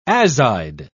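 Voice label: "azide", British English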